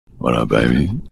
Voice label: What up baby, deep voice; Corpse Husband